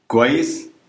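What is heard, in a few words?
fine, Egyptian Arabic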